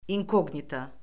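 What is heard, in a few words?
"inkoqnito", Azerbaijani; Orfoqrafiya Lüğəti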